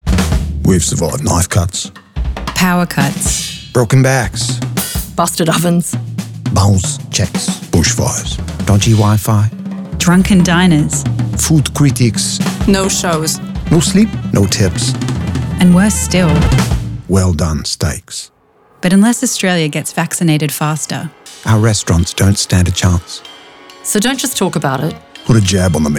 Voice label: radio